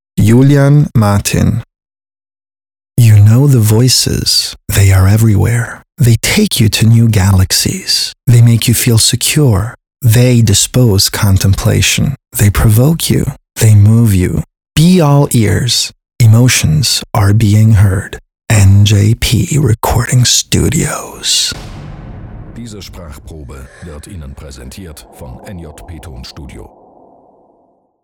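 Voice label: english trailer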